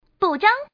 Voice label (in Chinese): buzhang, changsha man